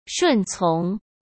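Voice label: "顺从", Mandarin Chinese; chinese flashcards; hsk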